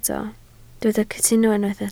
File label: "cytuno", Welsh